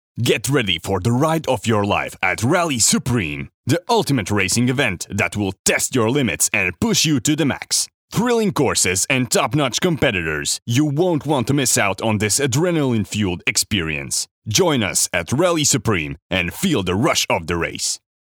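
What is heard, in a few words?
Reklāma, English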